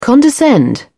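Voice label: "condescend", British English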